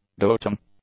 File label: "doting", English; adjectives